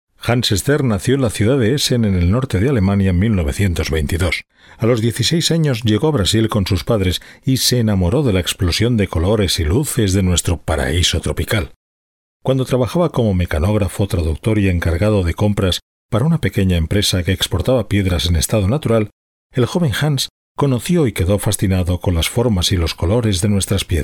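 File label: Spanish Spain, male, voice over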